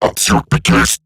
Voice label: Attack Robot Large; Dialog; Barks; Battle; en; Eximus Corpus; Corpus Robotic Large